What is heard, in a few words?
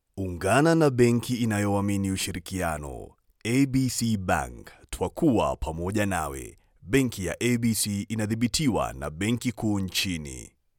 swahili